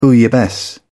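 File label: "bouillabaisse", British English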